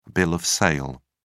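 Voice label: "bill of sale", English, uk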